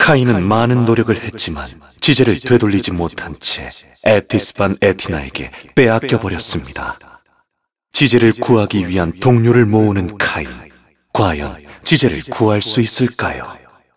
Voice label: cinematic voice; lineage2

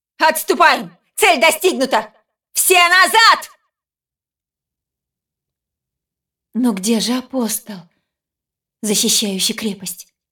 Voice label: cinematic voice, ru; lineage2

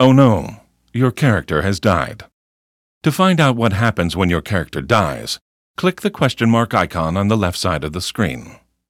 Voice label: tutorial voice; lineage2

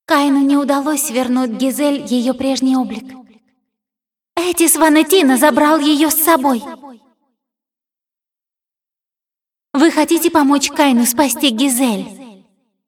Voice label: cinematic voice, ru; lineage2

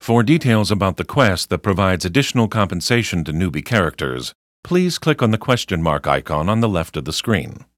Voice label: tutorial voice; lineage2

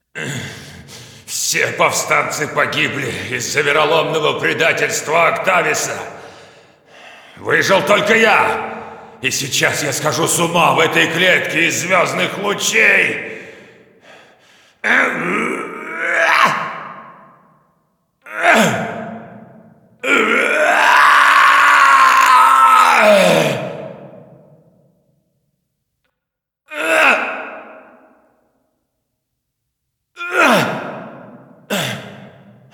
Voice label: cinematic voice, ru; lineage2

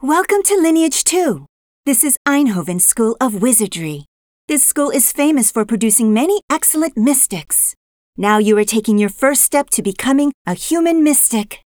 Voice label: tutorial voice, pl; lineage2

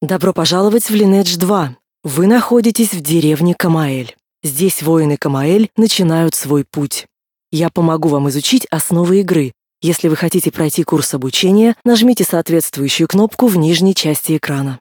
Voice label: tutorial voice, ru; lineage2

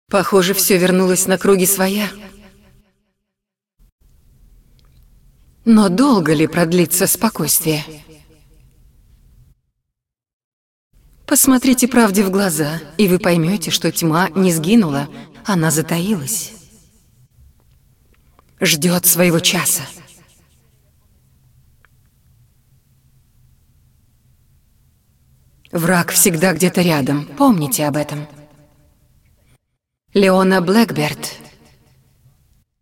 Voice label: cinematic voice, ru; lineage2

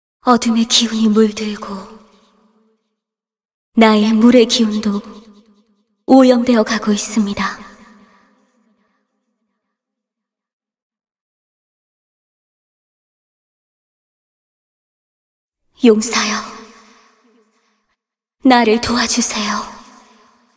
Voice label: cinematic voice; lineage2

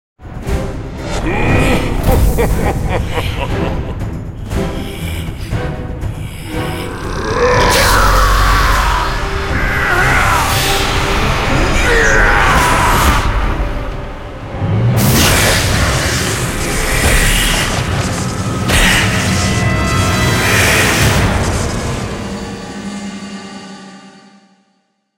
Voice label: cinematic voice, ru; lineage2

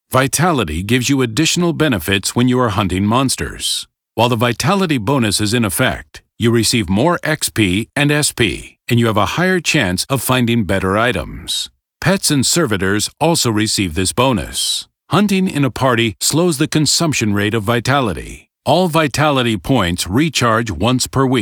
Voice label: tutorial voice; lineage2

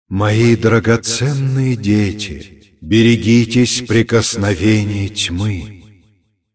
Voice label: dialog, ru; lineage2

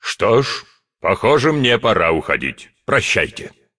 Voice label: cinematic voice, ru; lineage2

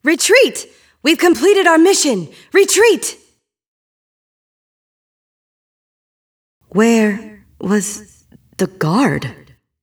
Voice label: cinematic voice; lineage2

